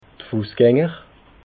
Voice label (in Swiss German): "fuessganger", alsace